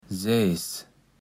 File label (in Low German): "Säjs", Platt